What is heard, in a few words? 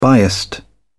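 "biased", British English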